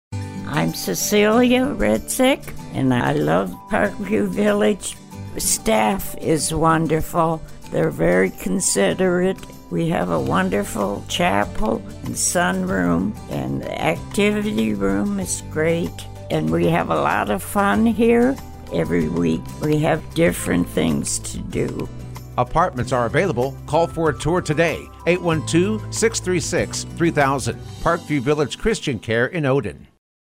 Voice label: testimonial